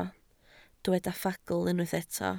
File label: "ffagl", Welsh